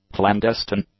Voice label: "clandestine", English; adjectives